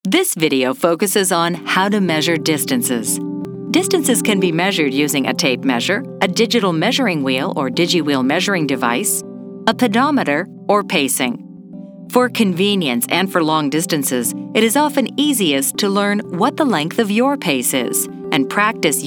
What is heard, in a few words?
Friendly Informative; SUNY